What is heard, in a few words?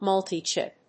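"multichip", English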